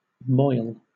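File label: "moil", British English; pronunciations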